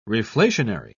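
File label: "reflationary", English, uk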